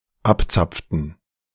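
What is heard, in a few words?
"abzapften", German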